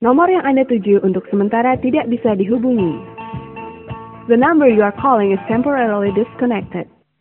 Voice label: indonesian phone cannot be reached

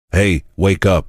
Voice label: hey wake up male voice

